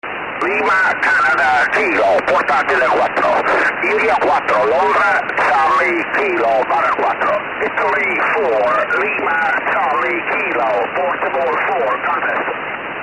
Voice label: cq 70cm